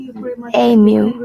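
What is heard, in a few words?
"Ainu", Japanese, female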